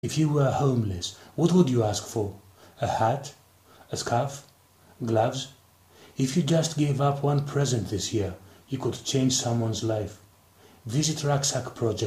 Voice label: male greek english with greek accent charity appeal